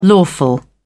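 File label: "lawful", British English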